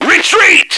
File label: retreat, radio, male